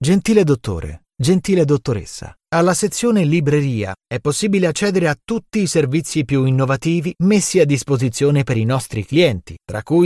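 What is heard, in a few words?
Italian, E-learning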